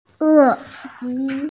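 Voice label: "crocodile", Mandarin Chinese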